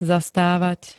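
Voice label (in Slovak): "zastávať", Slovak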